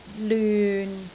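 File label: "lyun6", Cantonese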